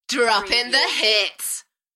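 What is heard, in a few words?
UK female, Enthusiastic, DJ vocals; Droppin the hits; Party / Dance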